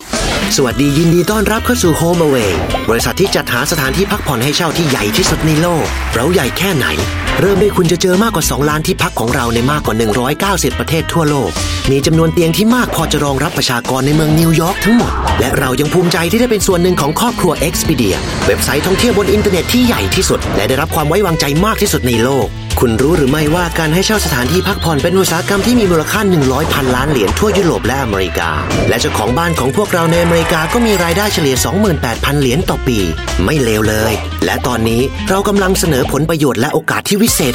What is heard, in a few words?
Thai TVC M; HomeAway